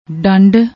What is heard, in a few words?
"dand", Punjabi; ਡੰਡ